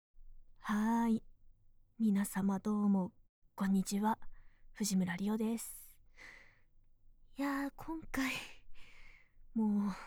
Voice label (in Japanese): フリートーク